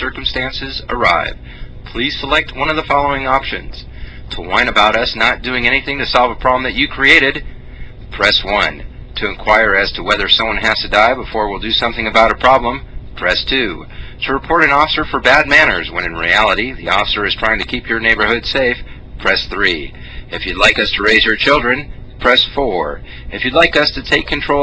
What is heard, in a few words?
Police Answering Machine